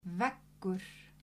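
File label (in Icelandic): "veggur", Icelandic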